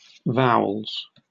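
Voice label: "vowels", British English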